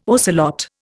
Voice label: "ocelot", Indian